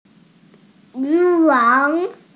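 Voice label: "yu2 wang3", Mandarin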